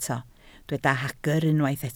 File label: "hagr", Welsh